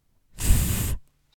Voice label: "th" soft